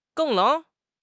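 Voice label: "geng4", Chinese; HSK3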